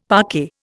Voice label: "parquet", Indian; Dictionary

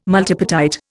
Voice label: "multipartite", Indian

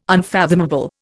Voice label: "unfathomable", Indian

